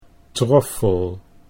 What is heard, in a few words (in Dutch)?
"troffel", Dutch